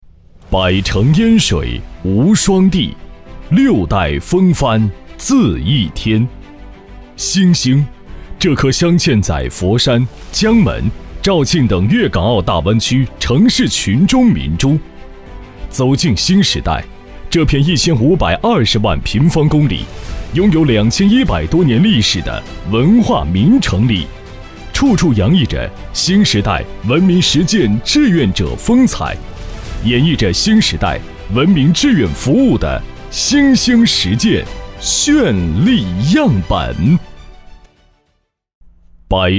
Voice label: 男 宣传片; 新志愿

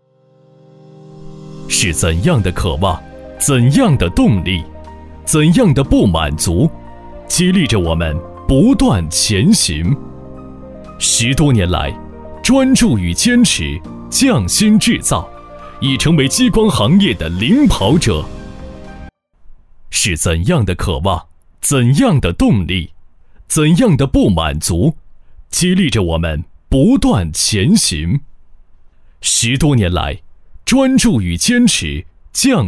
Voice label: 男 宣传片; 环亚品牌塑造

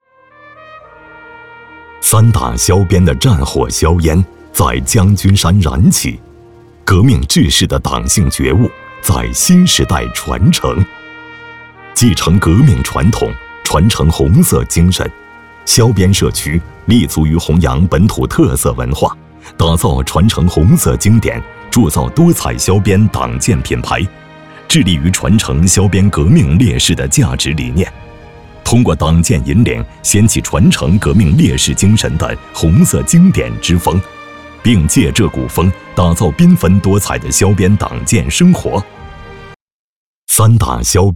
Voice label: 男 宣传片; 传承红色经典

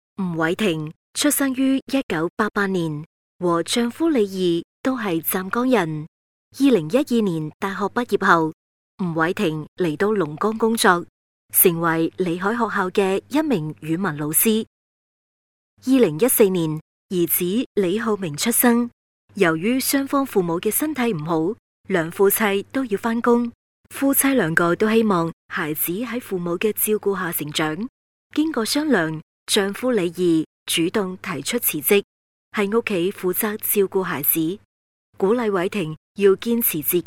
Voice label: 女【专题】人物

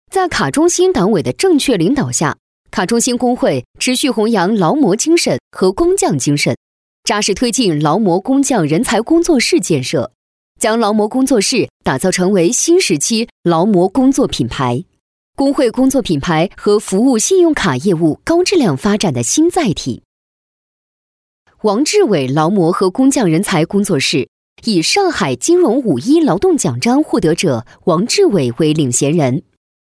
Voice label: 女; 人物; 劳模工作室

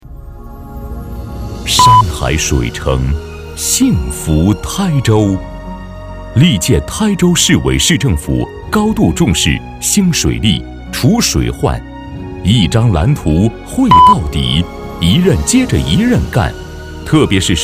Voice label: 男, 宣传; 山海水城

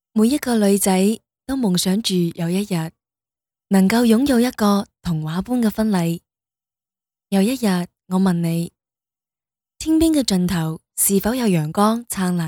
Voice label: 女 旁白 女孩梦想, 粤

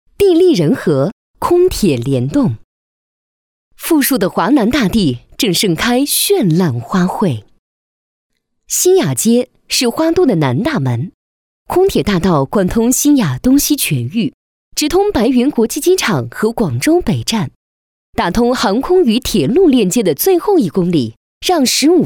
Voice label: 女 宣传; 新雅街

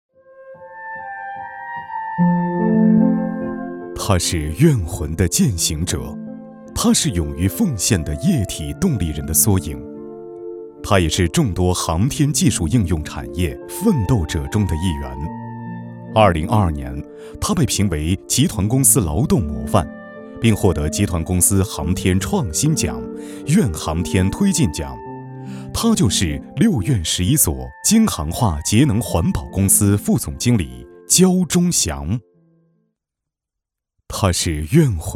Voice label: 男 宣传片; 教忠翔

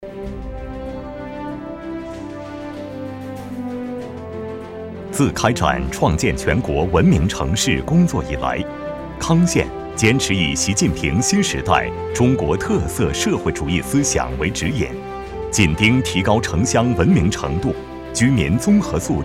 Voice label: 男, 专题; 康县

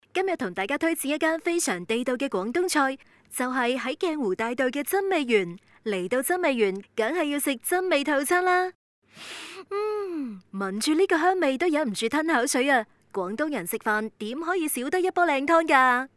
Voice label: 女【介绍】美食介绍; 真味园

